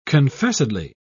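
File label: "confessedly", British English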